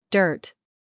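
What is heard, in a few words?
"scandal", American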